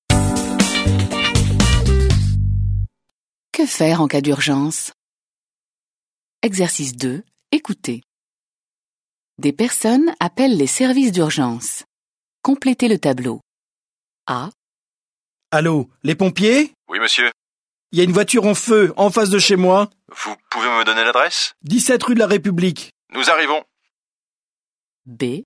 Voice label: French